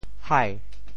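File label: "hai2", Teochew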